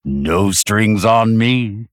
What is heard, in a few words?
Male Blood Elf Attack